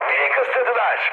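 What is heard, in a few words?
Turkish aircraft destroyed, mood high, speech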